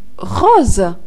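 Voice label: pink, Serbian; gcompris-data; colors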